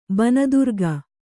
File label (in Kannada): "ಬನದುರ್ಗ", kannada